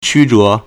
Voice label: "qu1 zhe2", Chinese

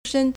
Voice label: "shen1", Chinese

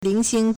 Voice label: "ling2xing1", Chinese

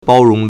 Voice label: "bao1rong2", Chinese

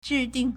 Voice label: "zhi4ding4", Chinese

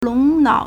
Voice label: "long2nao3", Chinese

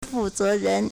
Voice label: "fu4 ze2 ren2", Chinese

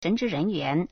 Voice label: "shen2zhi2ren2yuan2", Chinese

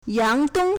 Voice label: "yang2dong1", Chinese

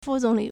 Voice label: "fu4 zong3 li3", Chinese

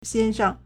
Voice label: "xian1sheng1", Chinese

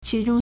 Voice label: "qi2 zhong1", Chinese

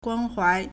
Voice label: "guan1huai2", Chinese

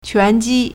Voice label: "quan2 ji1", Chinese; 拳击 (拳擊) quánjī; boxing; Sport

